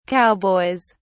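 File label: "cowboys", British English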